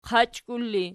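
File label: "qachkul" ergative, Archi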